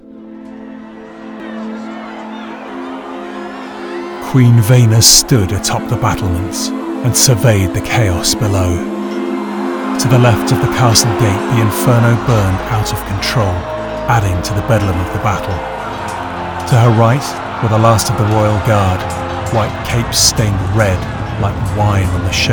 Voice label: Narrative

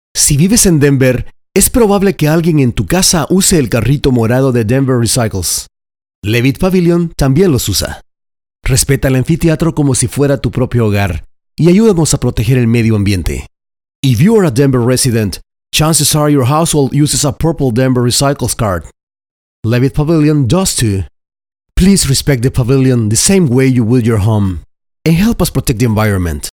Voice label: Spanish English; Levitt Pavilion

